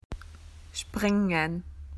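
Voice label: "to jump", Australian English; PictureDictionary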